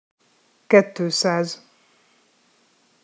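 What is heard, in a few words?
"kettoszaz", Hungarian pronunciation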